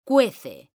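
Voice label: "CUECE", Spanish; mekanta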